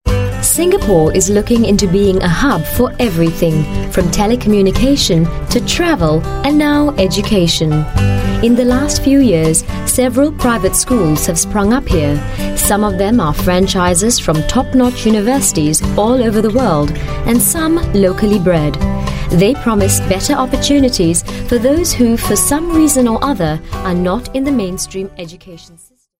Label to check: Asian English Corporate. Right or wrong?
Right